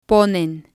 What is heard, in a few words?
"ponen", spanish